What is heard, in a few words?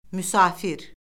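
"müsafir", Azerbaijani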